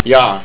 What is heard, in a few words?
Yes, estonian